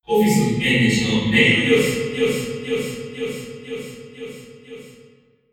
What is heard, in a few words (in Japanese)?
洞窟風